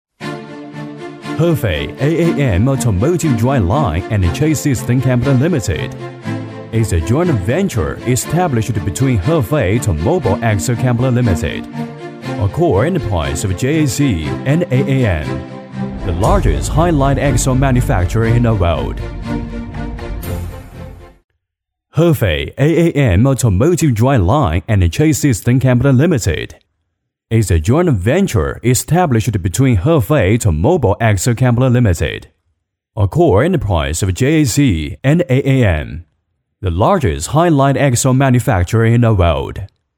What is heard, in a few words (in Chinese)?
男英 外语 国内英语 大气; HAAM